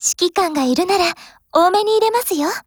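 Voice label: DIALOGUE, Japanese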